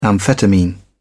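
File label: "amphetamine", English, British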